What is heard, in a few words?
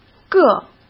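"个", Mandarin Chinese; hsk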